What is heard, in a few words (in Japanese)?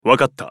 ウォーロック男 選択